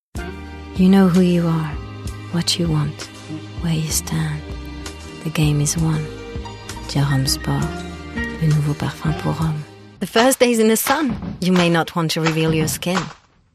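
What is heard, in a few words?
French, English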